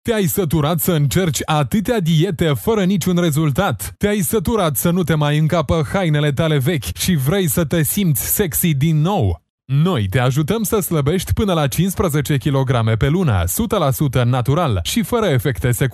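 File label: romanian male narration; voice demos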